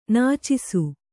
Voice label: "ನಚ್ಚಿಸು", Kannada